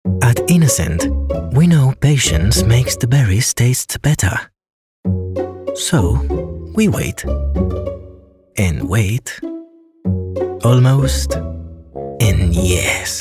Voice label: male spanish castilian english with spanish accent commercial; Devine Voices